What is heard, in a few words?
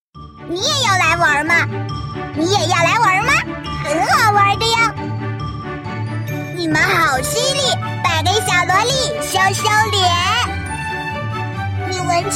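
female shota loli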